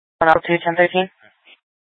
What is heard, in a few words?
Scottsdale PD Sth Dispatch, scanner